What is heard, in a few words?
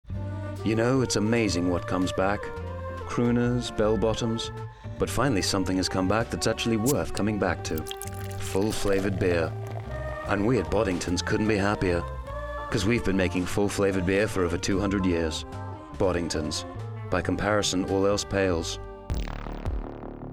british